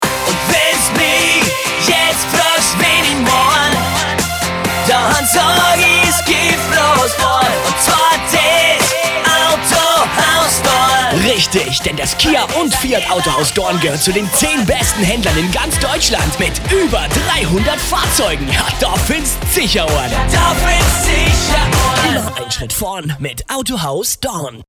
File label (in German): RADIOSPOTS